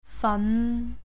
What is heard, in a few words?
"fan5", Cantonese